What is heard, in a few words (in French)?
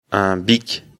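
"bic", French; afrikalan; lecture fr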